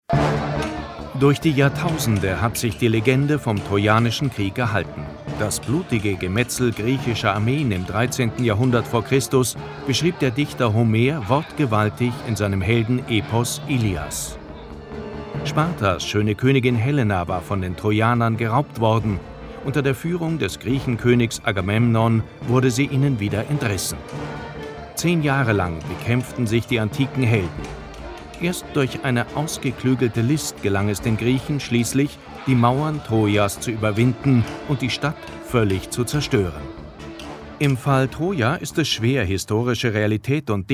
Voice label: male german commercial; ideasonora

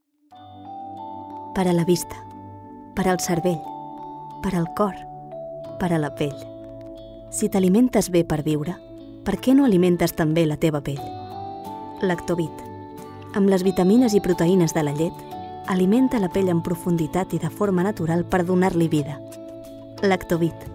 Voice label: female catalan skincare comercial

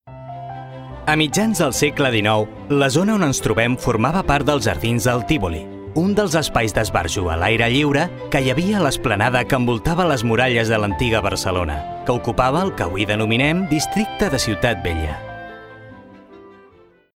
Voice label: male catalan audioguide comercial; ideasonora

